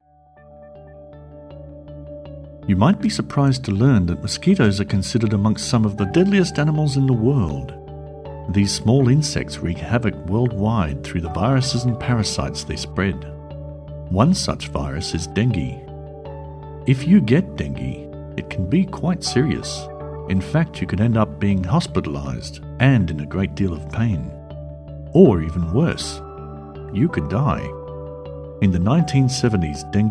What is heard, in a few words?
Medical Naration; for Dengue Cases Increase